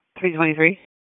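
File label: Police Northeast District Disp, radio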